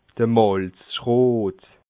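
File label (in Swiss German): "malzschroot", Bas Rhin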